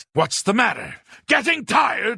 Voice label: guard getting tired; oblivion

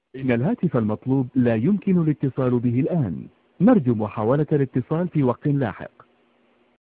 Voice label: Arabic phone cannot be reached

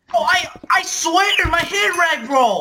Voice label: voicecrack

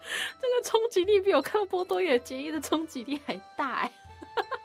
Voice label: shocked

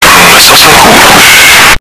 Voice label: loud

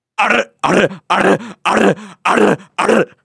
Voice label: rolling rs

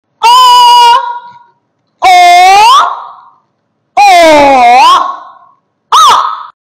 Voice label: chinese o pinyin

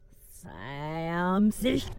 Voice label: kiss my a female rev

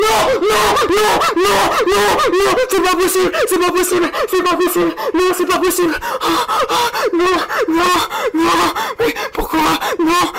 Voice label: french dude rage

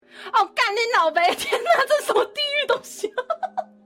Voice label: exclamation

